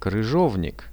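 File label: gooseberry, Russian; крыжовник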